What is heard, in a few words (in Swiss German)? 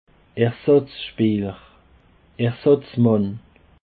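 "ersatzspeeler", Alsatian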